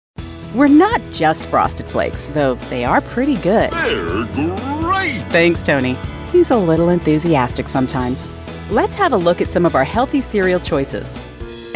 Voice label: Explainer

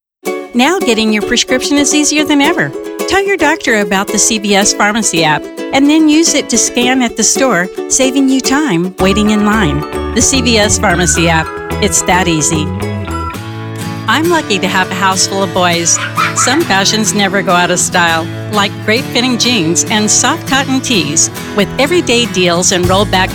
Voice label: COMMERCIAL MIX

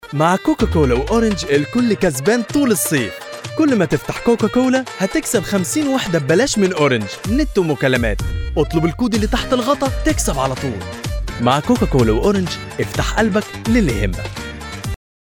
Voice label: egy dialect; custome audition cocacola

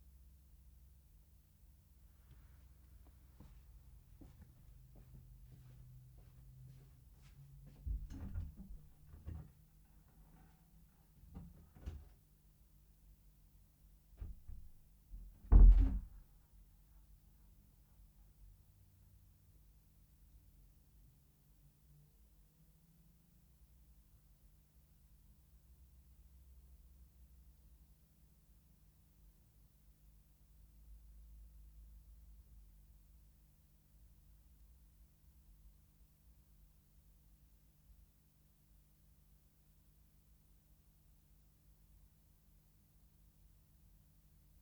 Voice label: studio

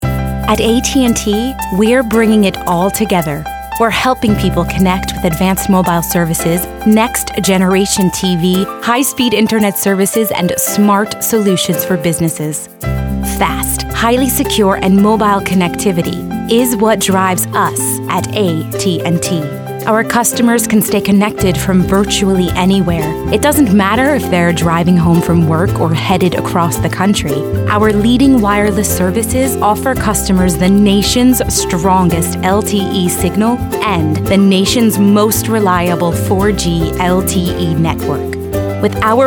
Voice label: Corporate

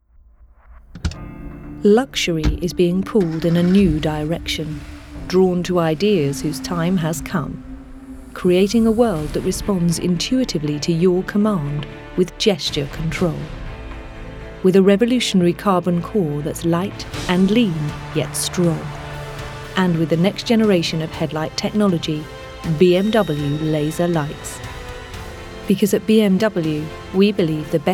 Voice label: Commercial Authoritative

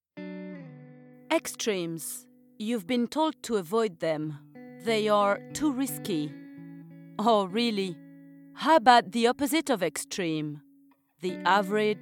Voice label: English with French accent

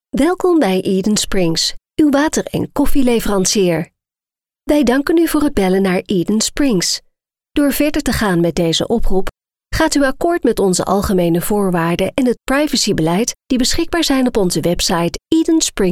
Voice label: IVR messages

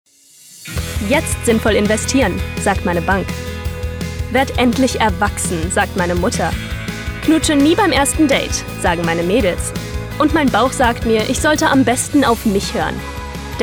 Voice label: Commercial Reel German

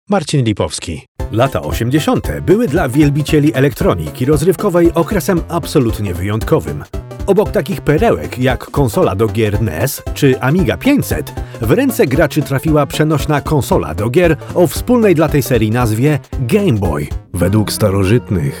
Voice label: Narrative Reel Polish

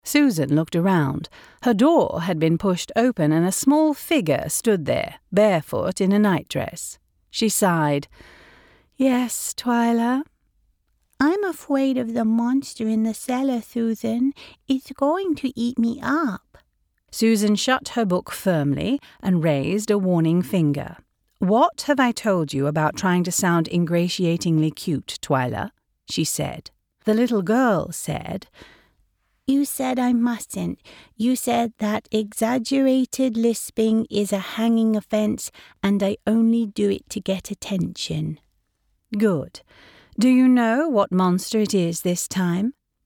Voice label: British Fantasy character voices; Hogfather

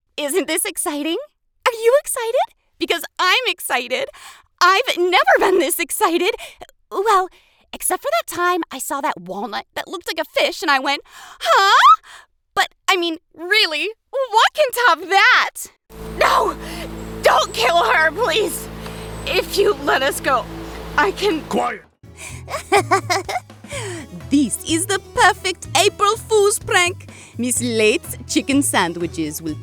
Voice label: Character Clips

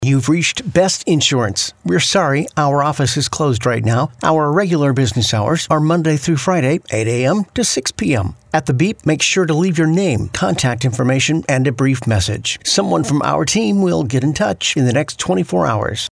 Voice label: phone

